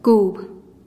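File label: "kub", dough, Teotitlán del Valle Zapotec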